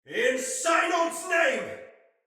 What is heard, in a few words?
Attack Heavy Soldier Male